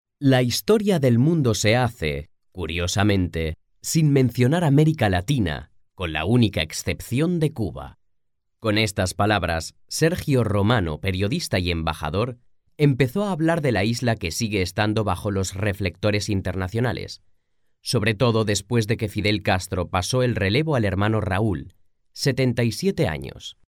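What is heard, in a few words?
Spanish Spain, male, voice over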